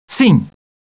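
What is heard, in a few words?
"yes", male; TALKNOW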